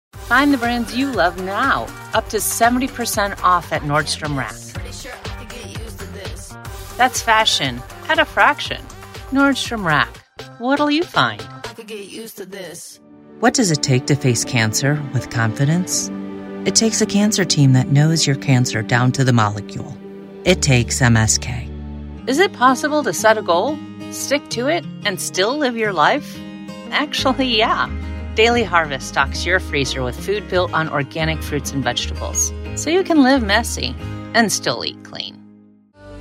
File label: Commercial